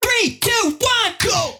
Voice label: Predrop Processed - Countdown - 150 BPM, Vocals; Cymatics; Euphoria Vocal Sample Pack